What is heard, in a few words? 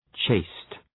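"chaste", English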